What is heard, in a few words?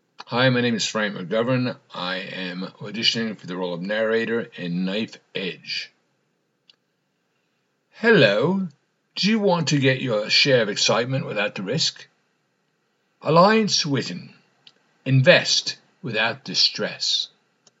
Narrator